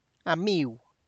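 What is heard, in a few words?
tlacochahuaya, Zapotec; friend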